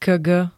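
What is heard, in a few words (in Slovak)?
"kilogram", Slovak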